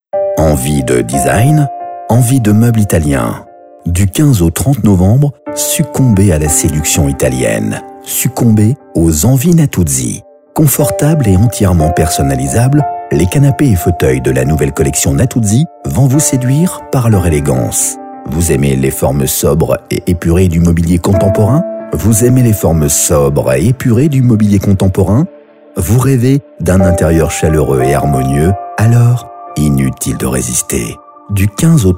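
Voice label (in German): französischer Sprecher; Französischer Werbesprecher; french voice-over talent male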